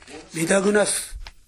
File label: "midagunasu", minamiyamagata